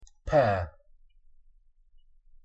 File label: pronunciation en pear; fruit and vegetables